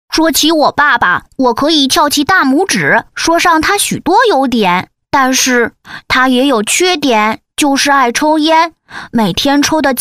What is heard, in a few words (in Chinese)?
动画 童声 男童